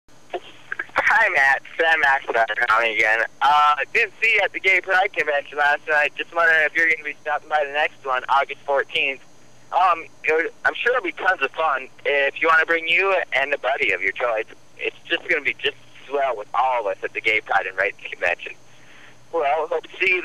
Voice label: Voicemails